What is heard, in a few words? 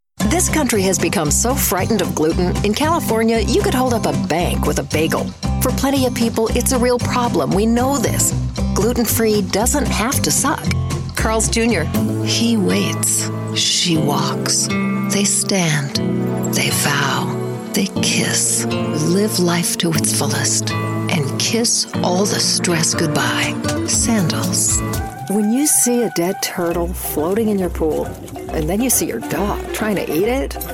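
female, US English, commercial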